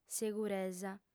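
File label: "seguresa", Sardinian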